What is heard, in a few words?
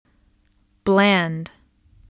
"bland", spelling